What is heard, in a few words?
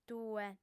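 "tue", female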